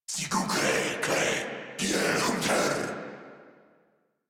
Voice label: Attack Corrupted Grineer Male

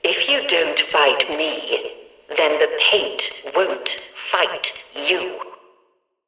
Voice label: Background Announcements

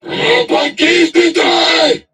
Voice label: Cover Me, Crewmen, en; Warframe; Corpus Crewmen; Crewman E; Dialog Barks Battle

